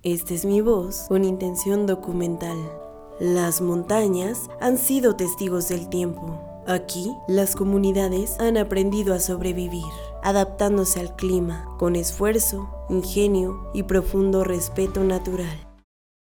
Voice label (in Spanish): Documental Musicalizado, locutora